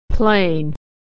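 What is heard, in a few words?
"plane", American English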